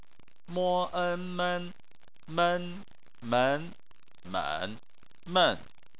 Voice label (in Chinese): "men", Chinese